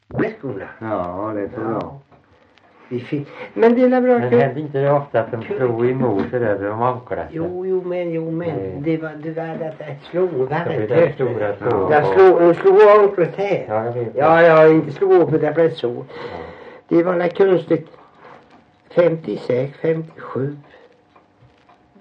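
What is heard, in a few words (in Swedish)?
Värö Halland, dialekter; Folkminnen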